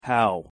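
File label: "how", English pronunciation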